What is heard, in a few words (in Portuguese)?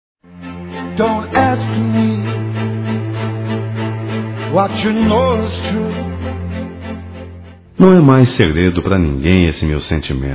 Paquera Masculino; Telemensagens 2017